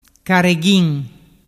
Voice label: "careghin", dialettu

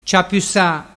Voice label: "ciapusa", dialettu

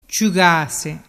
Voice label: "ciugase", dialettu

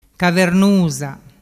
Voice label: "cavernusa", dialettu

